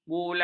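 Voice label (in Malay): "bular", Malay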